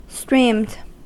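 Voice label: "streamed", US English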